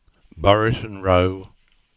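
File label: "Buriton Row" English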